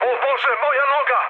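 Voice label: Serbian damaged pilot mood med, speech; War Thunder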